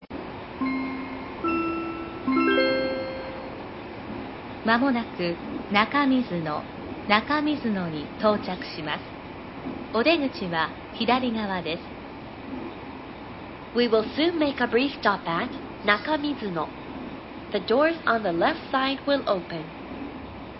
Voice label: 中水野到着前, 車内放送; 普通列車（岡崎→高蔵寺） 高蔵寺行き。２００５年７月; 中水野：途中用; aichiloop

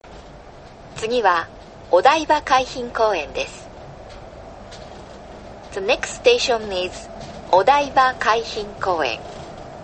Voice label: 台場到着前, 車内放送; 台場発車後; yurikamome

